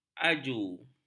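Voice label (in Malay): "aju", Malay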